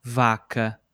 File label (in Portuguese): "vaca", Portuguese